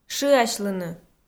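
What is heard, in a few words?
"шыасьлыны", Komi, female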